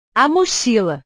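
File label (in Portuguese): "a mochila", Portuguese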